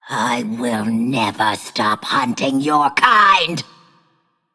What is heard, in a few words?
Female Undead